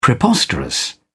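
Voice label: "preposterous", British English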